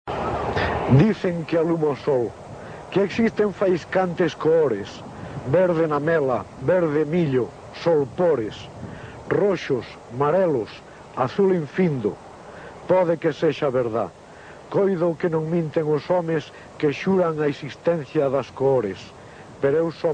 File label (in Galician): poesia recitada; dicen que existen as cores